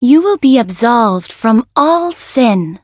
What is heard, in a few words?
variable chant; rosetta